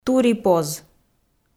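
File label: "турипоз", Komi, female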